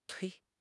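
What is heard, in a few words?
"tui1", Chinese; HSK4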